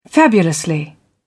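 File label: "fabulously", British English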